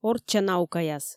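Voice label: "орчча наукаяс", Komi, female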